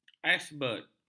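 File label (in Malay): "aisberg", Malay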